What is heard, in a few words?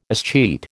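"escheat", American English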